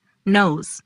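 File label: "Nose", pronunciation; body parts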